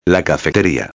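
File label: "la cafeteria", Spanish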